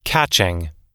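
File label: "catching", US English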